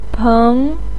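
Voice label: "peng2", Mandarin pinyin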